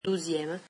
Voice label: "twelfth", french